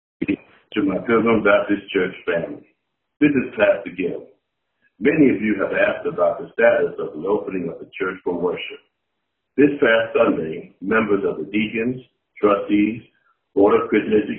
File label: voicemail